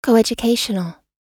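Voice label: "co educational", US English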